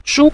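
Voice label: "shu", Mandarin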